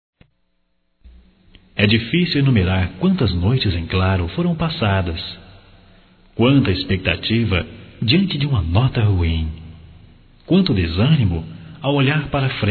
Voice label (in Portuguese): Evangélica Geral Masculino, Só Voz; Telemensagens; Telemensagens 2017